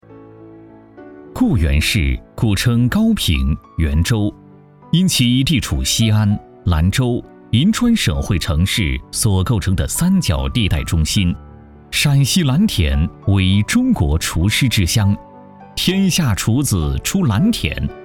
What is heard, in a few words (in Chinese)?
男 专题 舒缓; 酒楼专题